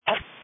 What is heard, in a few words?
Police Dispatch